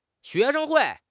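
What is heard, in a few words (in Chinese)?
"xue2 sheng5 hui4", Mandarin Chinese; 汉语口语新时代-初级下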